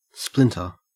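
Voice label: "splinter", Australian English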